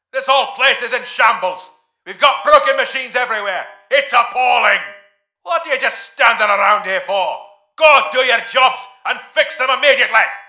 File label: repair reminder urgent; svencoop; mustard; maxwell